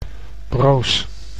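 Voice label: "broos", Dutch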